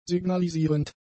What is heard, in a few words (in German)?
"signalisierend", German; adjectives